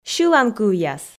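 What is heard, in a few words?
"сьыланкывъяс", Komi, female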